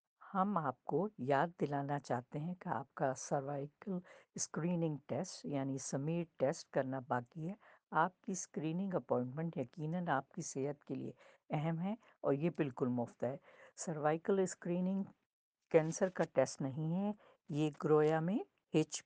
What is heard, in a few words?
Urdu; cervical screening